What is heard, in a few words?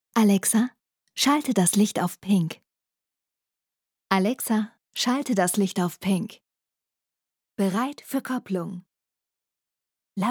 GERMAN